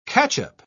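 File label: "catchup", British English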